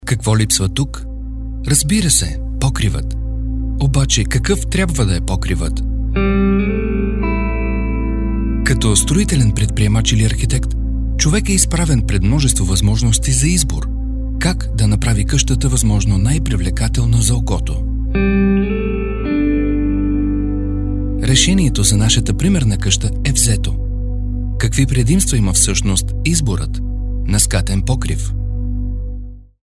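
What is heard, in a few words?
Bulgarian, male, narration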